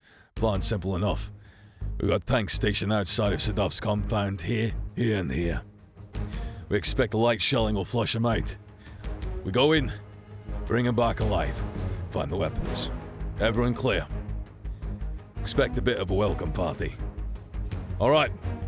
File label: Military Battle Chatter